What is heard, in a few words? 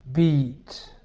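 "beat", English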